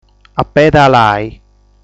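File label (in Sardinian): "Apedalai", Sardinian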